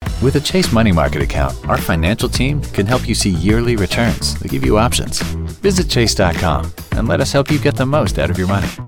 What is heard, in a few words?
Commercial; Chase Money Market